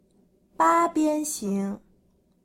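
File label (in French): "octogone", French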